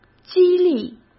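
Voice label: "激励", Mandarin Chinese; chinese flashcards; hsk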